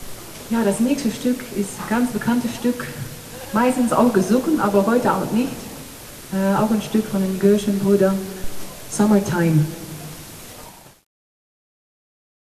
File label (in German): Ansage